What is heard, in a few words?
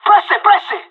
radio lets go, pirate; Counter Strike Model Pack; CS:GO